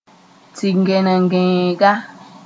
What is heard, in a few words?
"tɩ-gẽnengẽega", Moore